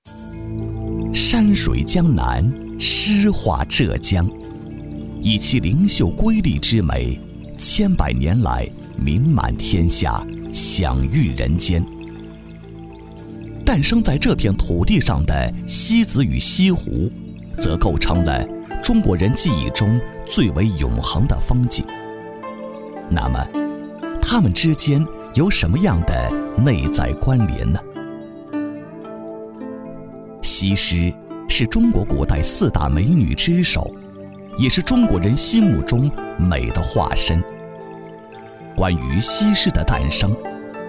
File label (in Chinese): 宣传片 旅游 舒缓, 男; 西子与西湖